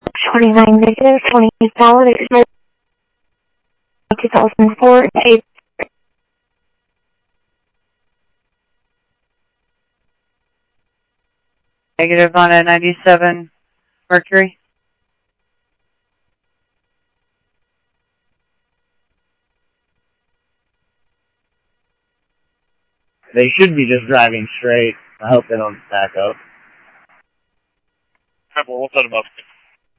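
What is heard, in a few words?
Sheriff West County, scanner audio trunk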